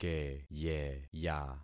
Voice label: "keyeya", concat syllables; Affixing experiment